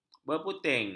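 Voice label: "berputing", Malay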